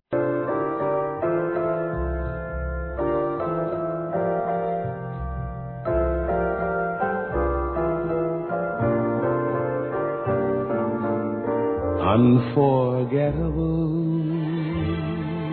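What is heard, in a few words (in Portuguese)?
OBRIGADO POR ME FAZER AVô, Masculino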